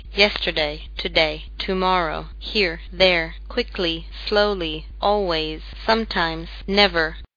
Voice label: english

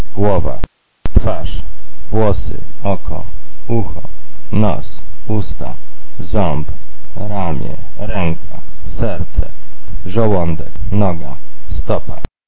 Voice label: polish body

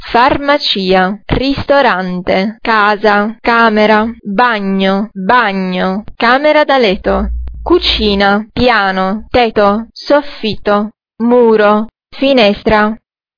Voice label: italian places

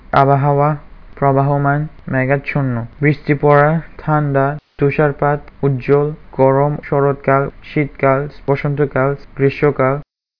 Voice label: bengali; weather

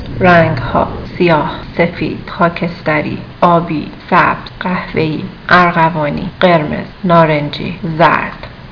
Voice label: farsi; colors

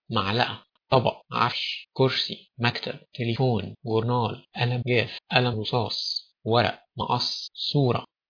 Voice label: egyptian

